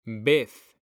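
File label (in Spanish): "vez", Spanish; mekanta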